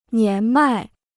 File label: "年迈", Chinese